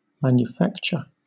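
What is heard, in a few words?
"manufacture", British English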